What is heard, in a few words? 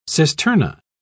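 "cisterna", British English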